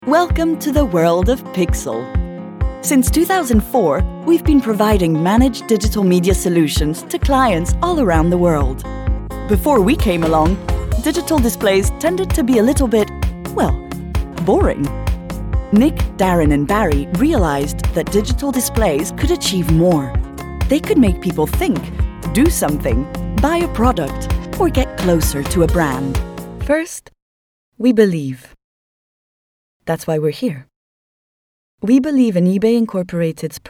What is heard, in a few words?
English corporate